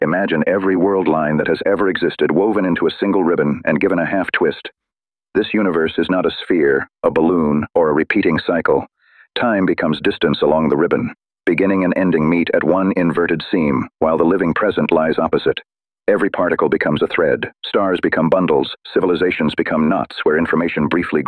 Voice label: narration; mobius cosmology